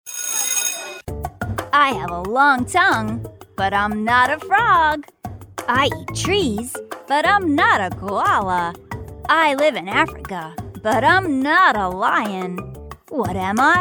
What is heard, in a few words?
K-12 Interactive Learning